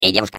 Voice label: Space core babble, ru; Core voice lines